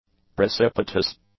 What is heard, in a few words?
"precipitous", english; adjectives